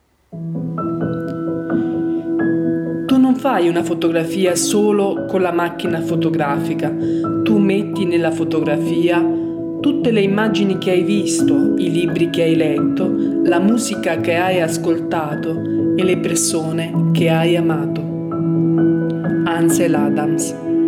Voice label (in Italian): senza mic; microfono esterno kf concept cm600 kit per fotocamere e smartphone